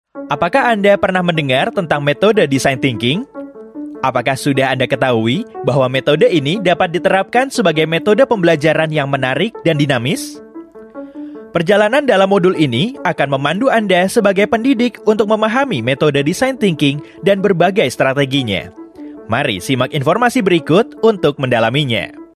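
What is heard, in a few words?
Bahasa Indonesia Video Tutorial; GenEd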